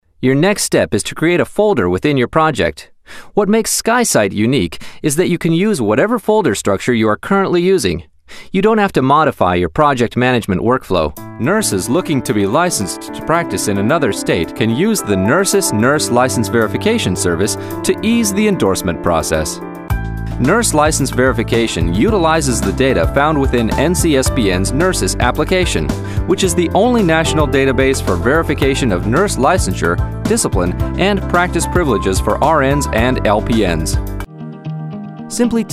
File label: english american e-learning